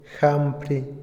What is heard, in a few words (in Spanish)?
"culto", Otomí, hombre; Religión; INALI